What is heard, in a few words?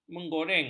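"menggoreng", Malay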